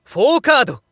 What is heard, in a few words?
Narration POKER 4 CARDS